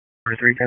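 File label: Dispatch, p25; WSO DISP 1; wake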